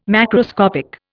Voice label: "macroscopic", Indian